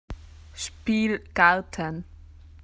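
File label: "playground", Australian English; PictureDictionary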